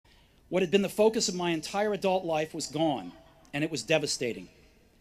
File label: Commencement Address; Steve Jobs; 2005; Stanford